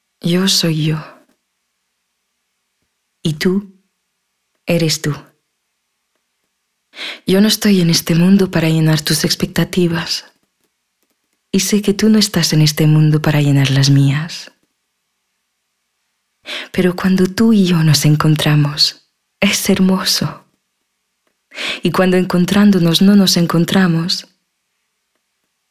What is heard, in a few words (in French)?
Démo Espagnol